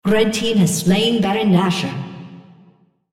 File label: Kill Worm, female, en US; OnKillWormred